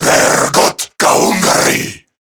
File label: Player Spotted, Male, Battle Barks; Warframe; CorruptedGrineerMale